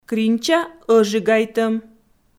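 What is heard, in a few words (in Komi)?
"кринча ӧжигайтӧм", Komi, female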